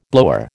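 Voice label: "blower", American English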